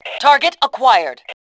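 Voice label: target acquired, voice Fem; Tribes2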